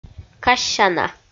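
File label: "кассяна", Komi, female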